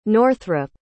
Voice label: "northrup", pronounce